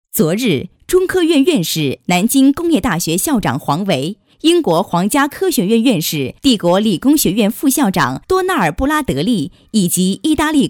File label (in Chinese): 新闻播报